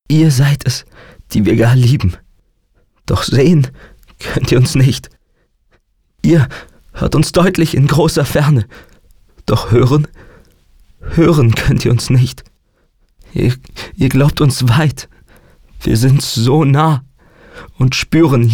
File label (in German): traurig, maenner